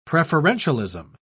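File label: "preferentialism", English, British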